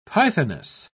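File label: "pythoness", British English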